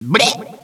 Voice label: "bleh", echo inside caves, underground; DS; ML BOWSERS INSIDE; Luigi

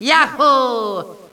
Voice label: "ya hoo", Echo inside caves, underground; DS; ML BOWSERS INSIDE; Luigi

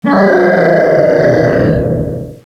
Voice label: "nooo", Echo inside caves, underground; DS; ML BOWSERS INSIDE

